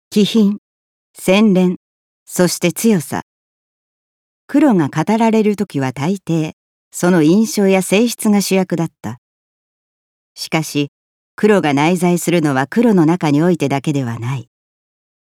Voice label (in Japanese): 解説ナレ